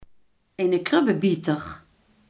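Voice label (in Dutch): iemand die gauw beledigd is, Limburgse gesproken woordenboeken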